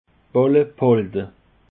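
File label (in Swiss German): "ballebhalde", Alsatian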